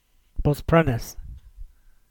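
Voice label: "Bosprennis" English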